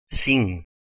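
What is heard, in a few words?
"cinc", Catalan, male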